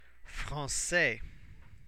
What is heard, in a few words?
"francais", French